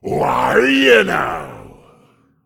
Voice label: Demon Emote

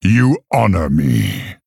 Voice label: Male Orc Well Played

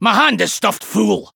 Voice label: Male Dwarf Error Hand Full

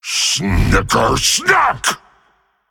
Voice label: Male Monster Attack

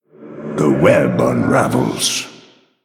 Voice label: Male Night Elf Oops; Malfurion Deathknight

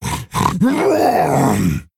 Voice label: Male Quilboar Attack

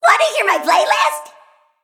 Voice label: Female Mech Play

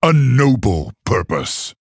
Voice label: Male Orc mirror start

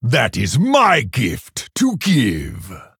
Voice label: Male Orc

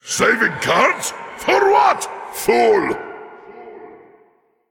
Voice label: Male Elemental ERROR HAND FULL

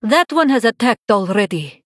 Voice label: Female Draenei Error Minion Attacked

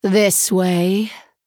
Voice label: Female Human Attack

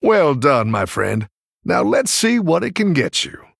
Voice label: Male Human After Triple

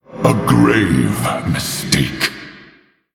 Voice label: Male Orc, Oops

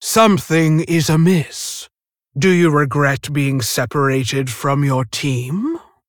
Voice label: Female Tauren Idle

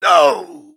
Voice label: Male High Elf Death; Lorthemar

